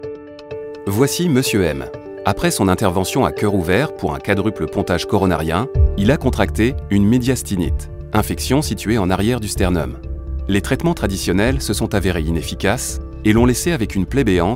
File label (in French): Santé Voix Off instit; ICERAM